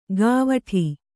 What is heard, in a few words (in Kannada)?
"ಗಾವಠಿ", Kannada